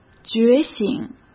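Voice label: "觉醒", Mandarin Chinese; hsk; chinese flashcards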